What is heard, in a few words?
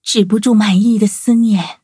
loading, zh-hant; Cookie Run